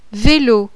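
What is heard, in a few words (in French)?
"VELO", French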